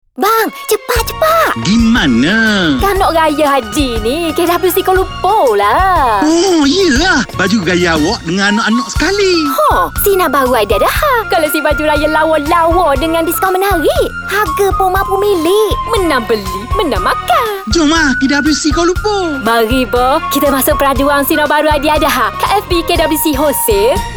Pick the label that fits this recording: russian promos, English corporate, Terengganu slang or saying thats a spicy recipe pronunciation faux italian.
Terengganu slang